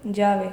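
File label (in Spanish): "llave", Spanish, Andalusia, Sevilla; llave [ˈʝabe]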